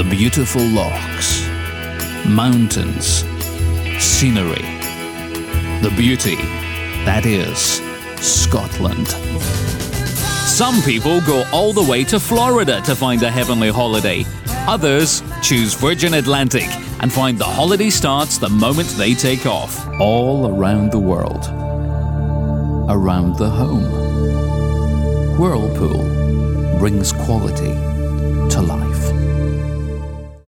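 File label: english scottish male commercial; voice demos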